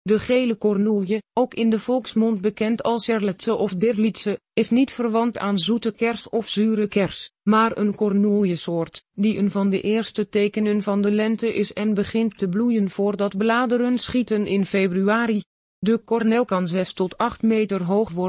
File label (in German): Korneelkirsche Text Holl